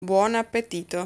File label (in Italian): "Buon appetito", Italian